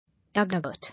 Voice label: "Aggregate", English

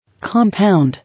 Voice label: "Compound", English

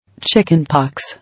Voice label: "Chicken pox", English

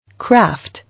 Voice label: "Craft", English

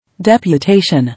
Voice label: "Deputation", English

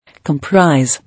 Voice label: "Comprise", English

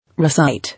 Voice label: "Recite", English

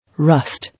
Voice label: "Rust", English

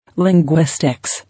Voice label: "Linguistics", English

